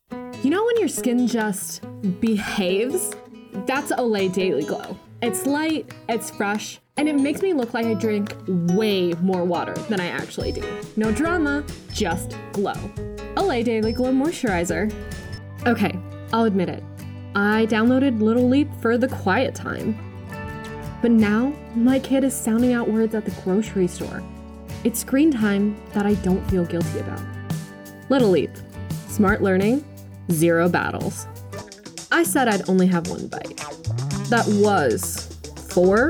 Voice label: Commercial VO

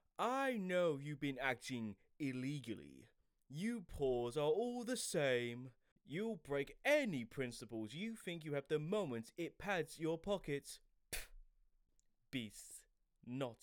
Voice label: noble voice

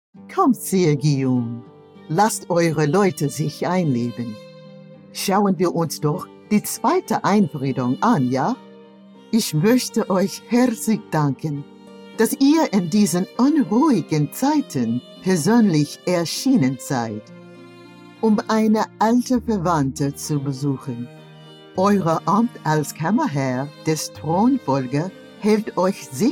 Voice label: Character Narration; Jeanne de Paris

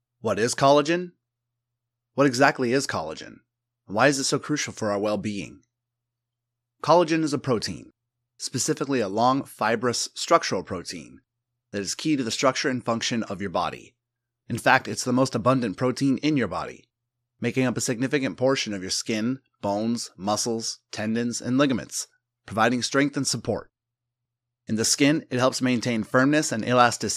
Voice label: Medical E learning

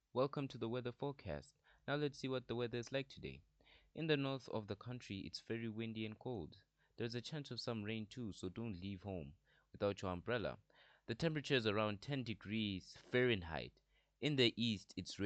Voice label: reporter, male voice actor

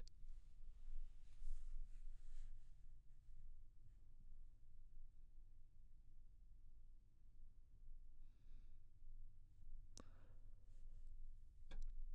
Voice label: RAW Booth Sample

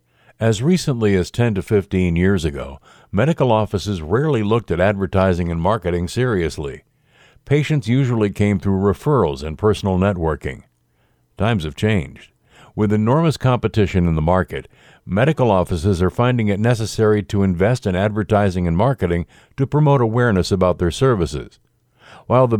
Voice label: audiobook

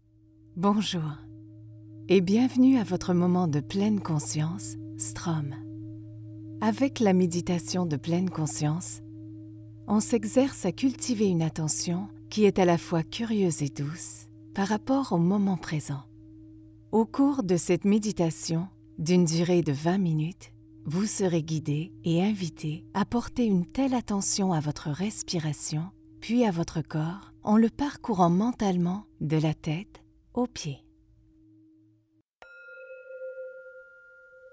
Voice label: Wellness, FRCA

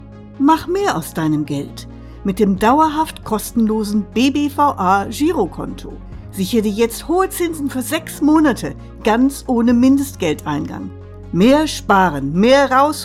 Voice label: Commercial Werbung German

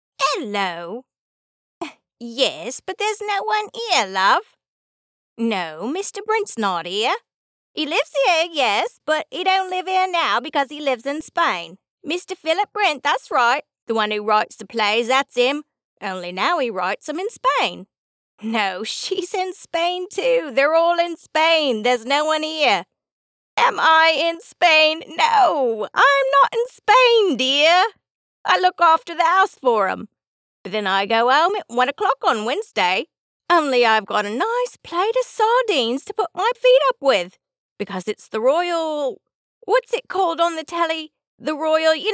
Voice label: British Cockney

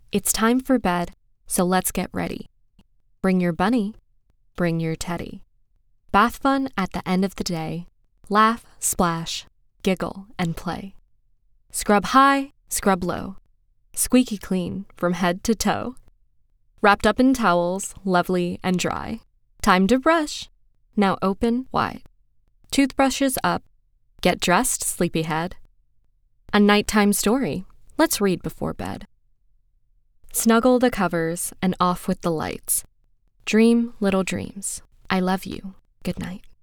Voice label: Childrens Book

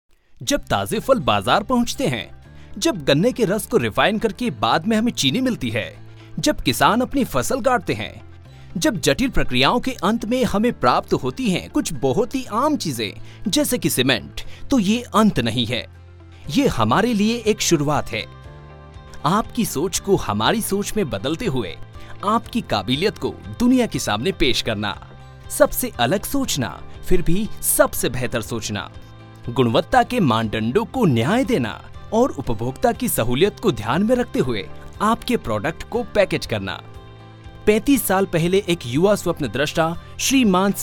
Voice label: corporate video

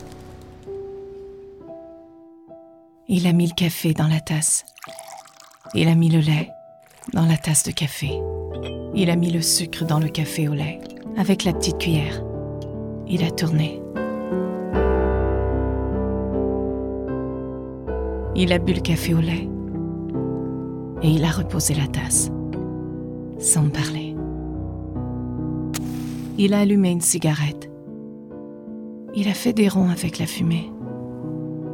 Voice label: Storytelling

